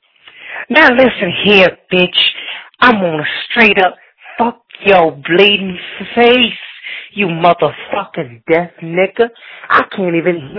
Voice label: Voicemail Woman; Woodburn OR